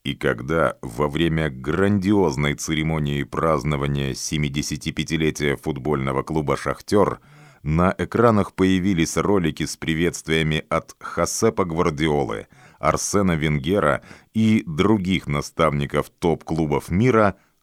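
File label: Russian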